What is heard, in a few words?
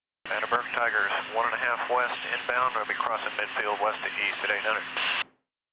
chatter; rigs of rods